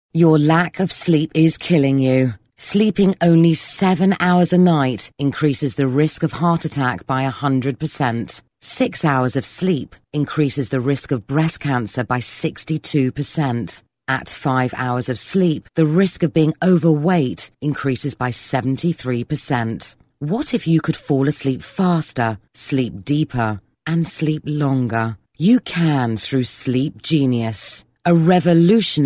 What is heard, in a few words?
British F, British English